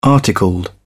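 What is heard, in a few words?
"articled", English, British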